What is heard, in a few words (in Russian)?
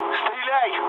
attack position, mood low, speech ru; War Thunder